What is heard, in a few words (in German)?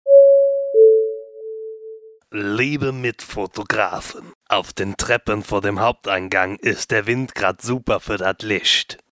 durchsage